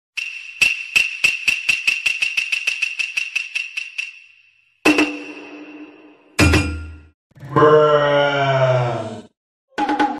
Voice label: japanese bruh